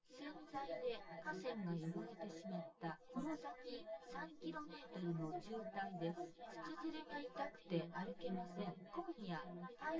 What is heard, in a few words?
female synth conv sim; SiSEC10; underdetermined